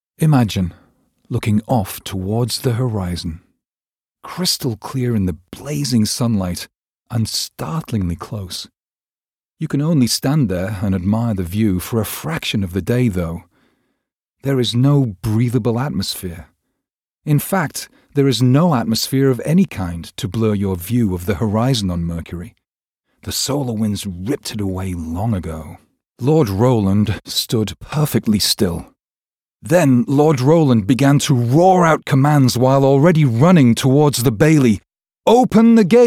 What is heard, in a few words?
Narratives Studio Quality No Music